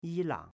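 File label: "Yilang", Chinese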